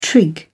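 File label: "trig", British English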